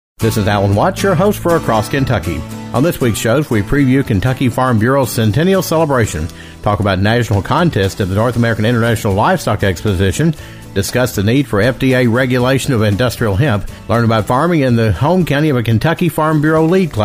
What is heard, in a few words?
promo; Across Kentucky